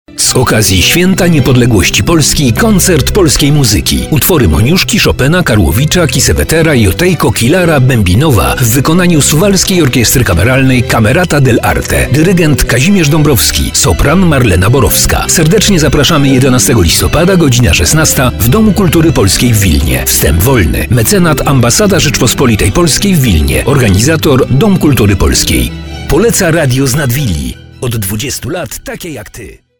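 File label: Polish, male, commercial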